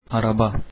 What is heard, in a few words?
turkish car